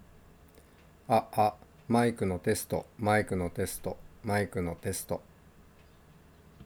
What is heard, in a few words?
microphone test fifine